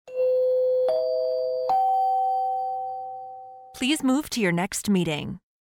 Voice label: three chimes ascending please move to your next meeting